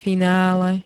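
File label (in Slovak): "finále", Slovak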